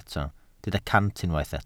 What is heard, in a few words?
"cant", Welsh